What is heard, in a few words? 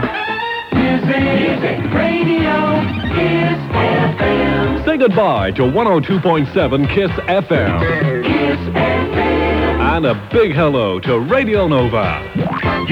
closure promo; nova 819am kiss